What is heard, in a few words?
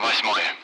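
Speech, Russian, 8th; Allied Flight; DCS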